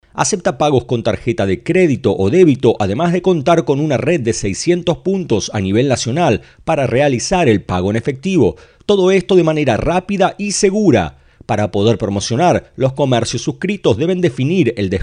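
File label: Spanish male; 西班牙语男; 外语; 小语种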